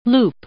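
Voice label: "loop", English; noun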